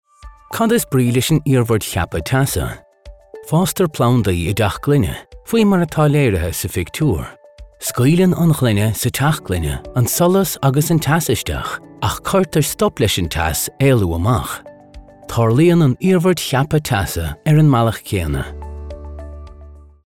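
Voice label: Gaelic, male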